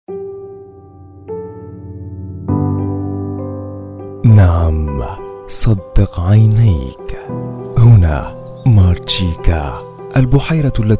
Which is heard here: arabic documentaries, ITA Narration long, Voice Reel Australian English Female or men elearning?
arabic documentaries